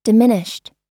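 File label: "diminished", American English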